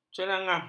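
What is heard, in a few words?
"ceranggah", Malay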